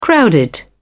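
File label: "crowded", British English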